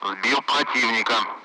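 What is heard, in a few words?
Speech, Russian; Allied Flight; splash bandit; DCS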